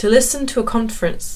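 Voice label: English; ecouter une conference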